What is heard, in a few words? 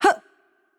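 Attack Light, Czech; cs CZ; VO; bloom minigame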